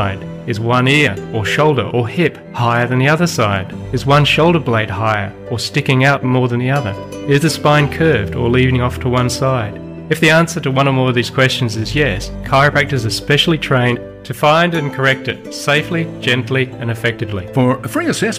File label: 60 Sec Radio Ad Scoliosis Posture Check